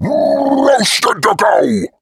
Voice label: voice, taunt; kratoa